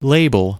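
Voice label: "label", US English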